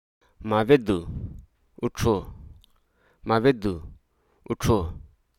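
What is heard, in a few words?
"disparate", Hruso Aka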